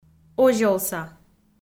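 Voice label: "озёлса" Komi-Zyrian, female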